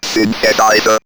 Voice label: Robot Synthesizer, robot vox; X-Static Goldmine